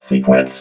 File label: "sequence", robot